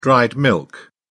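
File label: "dried milk", British English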